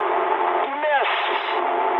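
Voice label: bomb failure mood medium, speech English; War Thunder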